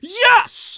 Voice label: Scout cheers